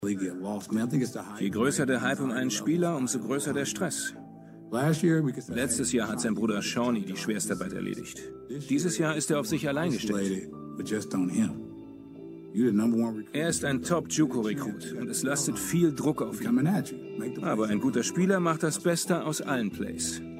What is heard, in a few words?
voice over